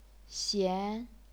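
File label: "xian", chinese pinyin, second tone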